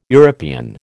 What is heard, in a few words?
"European", American